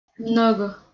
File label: "mnogo", Bosnian